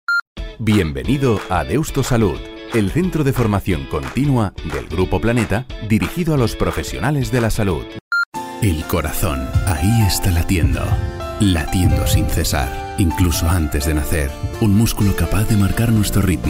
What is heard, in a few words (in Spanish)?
LOCUTOR CASTELLANO NEUTRO